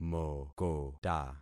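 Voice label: "mokota", concatenated syllables; Affixing experiment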